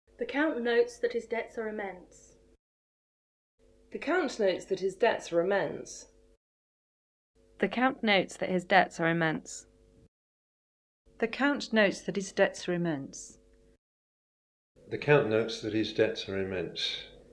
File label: the 8 utterances of the first of the English sentences